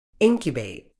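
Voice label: "incubate", British English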